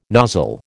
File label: "nozzle", American